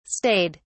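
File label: "stayed", British English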